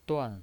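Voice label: "duan", Mandarin Chinese, fourth tone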